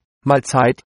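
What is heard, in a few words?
bon appetit, German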